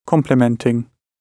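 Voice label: "complementing", British English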